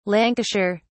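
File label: "lancashire", British English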